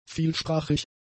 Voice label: "vielsprachig", German; adjectives

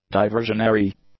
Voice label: "diversionary", English

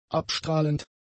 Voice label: "abstrahlend", german; adjectives

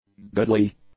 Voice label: "goodly", english; adjectives

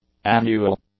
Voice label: "annual", English; adjectives

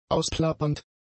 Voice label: "ausplappernd", German; adjectives

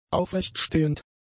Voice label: "aufrechtstehend", German; adjectives

